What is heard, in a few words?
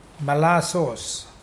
sap from coconut, Mokilese